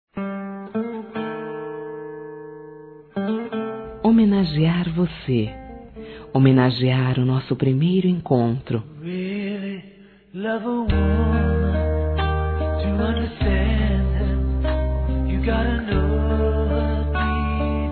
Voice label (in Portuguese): PAQUERA SUAVE F; fem